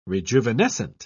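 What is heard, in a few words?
"rejuvenescent", British English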